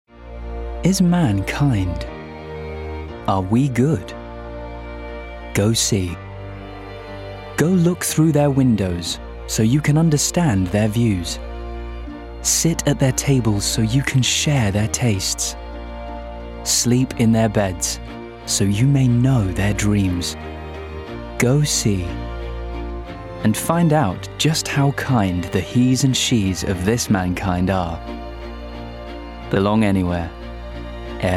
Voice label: Voice Reel Commercial; AirBnB